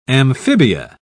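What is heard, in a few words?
"amphibia", English, British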